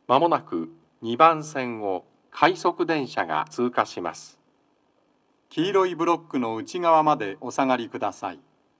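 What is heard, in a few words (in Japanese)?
sekkin rapid pass, 接近放送 【男声】 快速電車の通過; 放送は「快速電車の通過」です。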